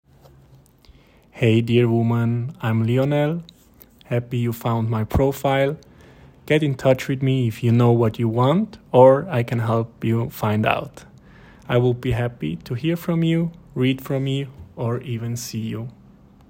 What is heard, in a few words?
English